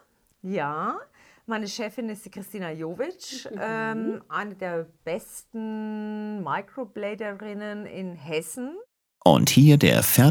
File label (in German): Vorher Nachher Praxis Podcast; Praxis Contour Podcast Folge